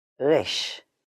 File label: "Resh", Hebrew; hebrew introduction